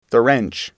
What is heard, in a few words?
wrench, englishAudio